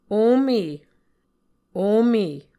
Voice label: "ome", Muscogee